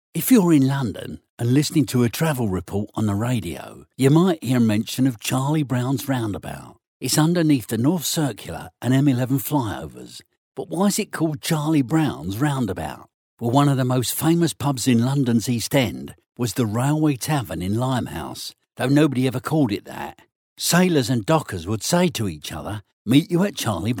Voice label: male british voice over character, english british